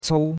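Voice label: "cou1", Chinese; Cours-de-Chinois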